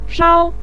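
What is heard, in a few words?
"shao", Mandarin Chinese, neutral tone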